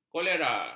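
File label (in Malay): "kolera", Malay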